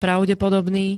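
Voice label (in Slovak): "pravdepodobný", Slovak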